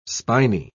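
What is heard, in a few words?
"spiny", English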